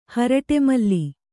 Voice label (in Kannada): "ಹರಟೆಮಲ್ಲಿ", Kannada